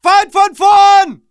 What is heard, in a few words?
ger enemy ahead, german